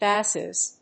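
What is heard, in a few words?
"basses", English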